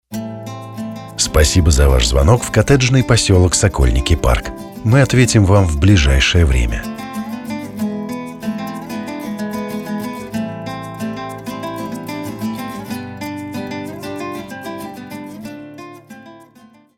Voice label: IVR